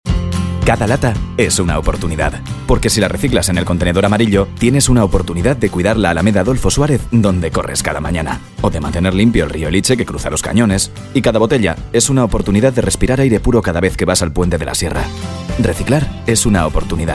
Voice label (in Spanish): Masculino; Sonoarte